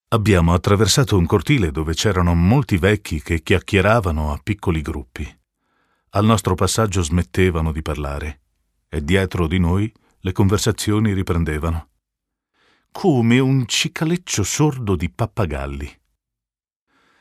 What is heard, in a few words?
it audiobook